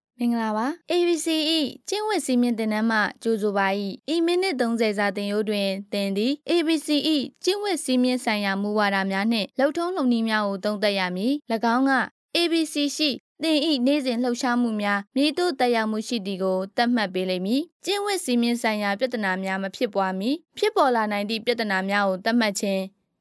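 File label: Burmese, female; voice over; myanmar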